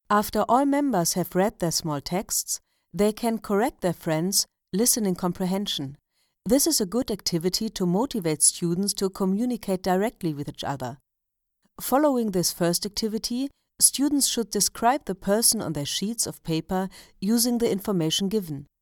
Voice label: English Foreign English Accent